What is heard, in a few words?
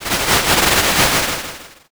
whisper